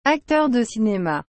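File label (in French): "acteur de cinema", French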